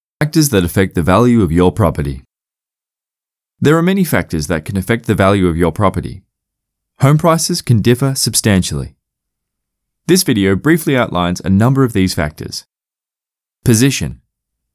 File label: English (AUS) Male